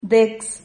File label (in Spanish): "dex", Silabas